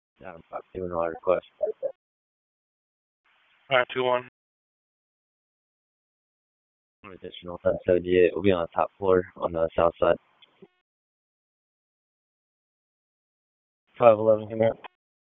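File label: Raleigh Police Southeast District Dispatch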